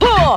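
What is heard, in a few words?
"huh", vocal sample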